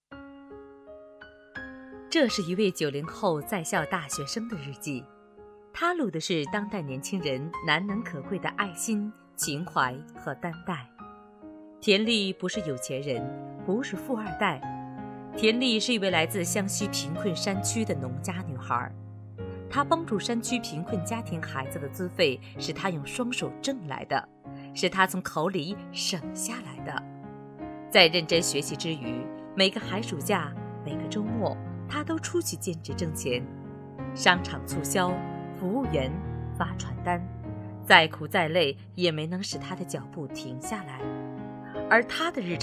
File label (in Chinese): 女 专题 讲述